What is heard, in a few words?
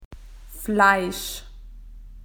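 "meat", Australian English; PictureDictionary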